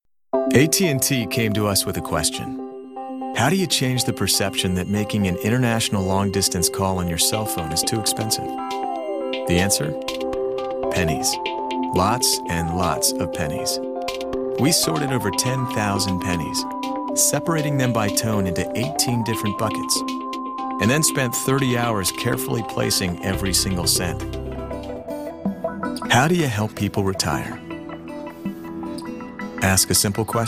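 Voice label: male English US narration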